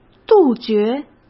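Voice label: "杜绝", Mandarin Chinese; hsk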